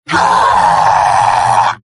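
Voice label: pain critical death; sniper; mvm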